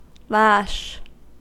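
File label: "lash", American English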